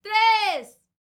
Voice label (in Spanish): FEM TRES, MEXICAN, World Voices; ILIO; Vocal Planet VOL-5